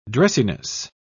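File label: "dressiness", British English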